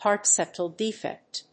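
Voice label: "Heart Septal Defect", English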